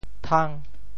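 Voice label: "thang5", Teochew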